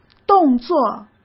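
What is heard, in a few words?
"动作", Mandarin Chinese; hsk; chinese-flashcards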